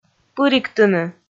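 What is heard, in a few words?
"пыригтыны", Komi, female; kpv audio dict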